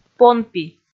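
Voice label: "понпи", Komi, female; kpv audio dict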